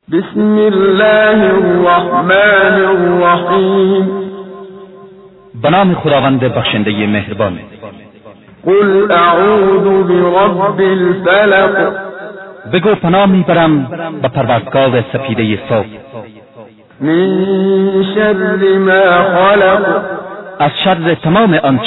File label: Surah Farsi; Menshay Translation